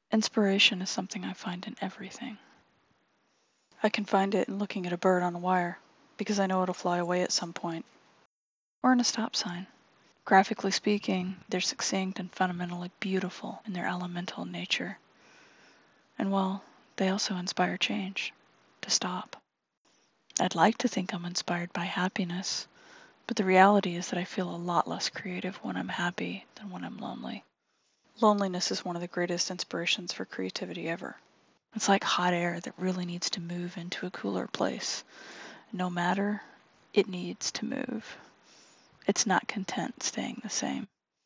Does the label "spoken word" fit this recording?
Yes